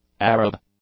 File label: "Arab", English; adjectives